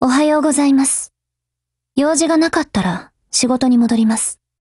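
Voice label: HELLO Japanese, Voice; GF Alarm; M4A1Mod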